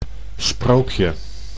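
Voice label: "sprookje", Dutch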